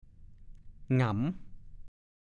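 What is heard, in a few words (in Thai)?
"งำ" ภาษาโคราช; ปิด ครอบ เก็บ ปิดบัง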